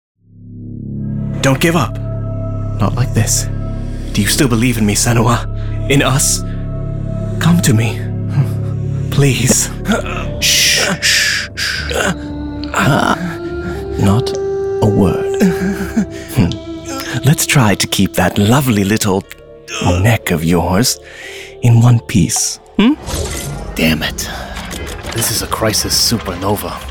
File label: video game vo; demo